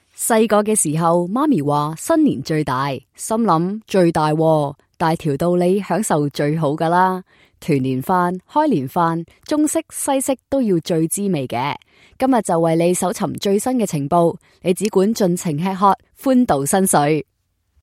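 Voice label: Chinese Cantonese female; voice over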